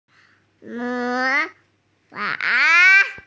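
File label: "mo2fang3", Mandarin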